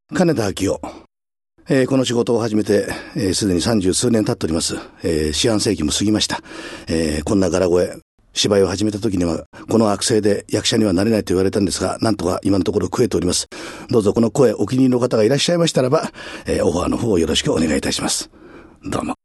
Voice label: freetalk, male voice

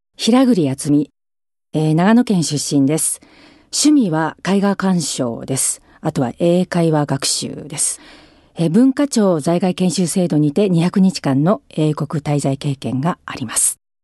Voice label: free talk, female voice

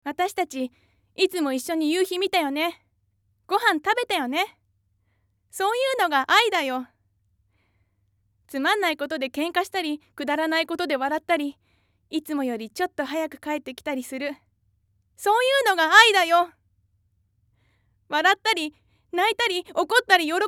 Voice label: female voice

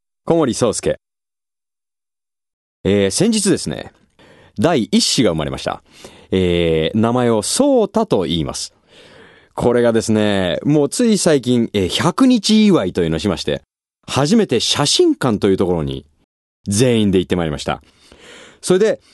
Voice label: freetalk, male voice

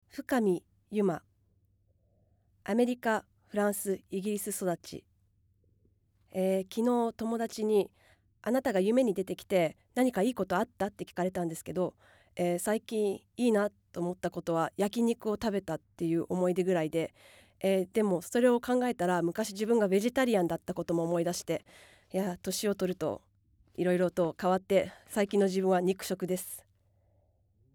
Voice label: female voice freetalk

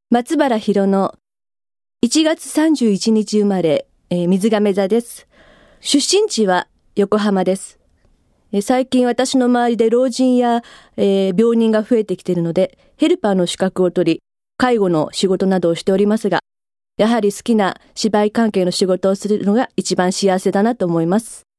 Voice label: freetalk, female voice

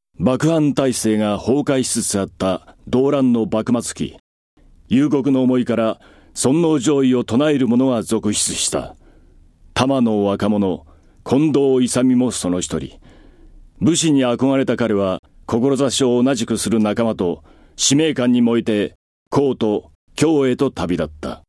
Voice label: male voice

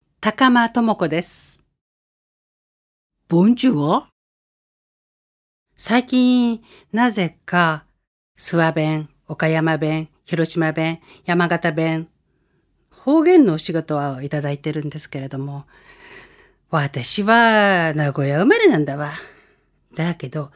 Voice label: free talk, female voice

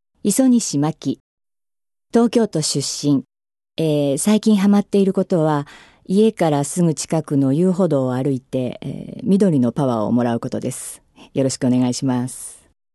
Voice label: female voice freetalk

